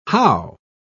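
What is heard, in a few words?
"howe", British English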